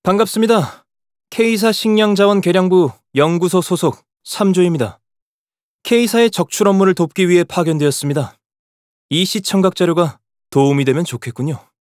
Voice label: Announcer equip